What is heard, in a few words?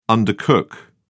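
"undercook", British English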